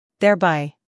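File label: "humor", British; thereby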